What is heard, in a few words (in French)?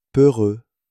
"peureux", French